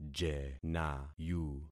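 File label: "jenayu", concatenated syllables; Affixing experiment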